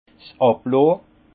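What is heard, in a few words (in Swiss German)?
"abloh", Alsace Haut Rhin; vin; décuvage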